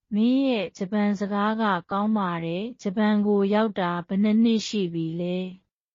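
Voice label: Myanmar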